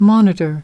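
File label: "monitor", English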